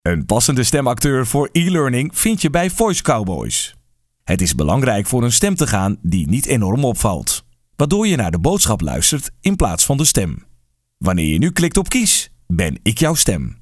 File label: ELEARNING